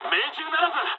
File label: bomb failure mood high, speech jp; War Thunder